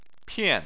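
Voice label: "pian" fourth tone, Chinese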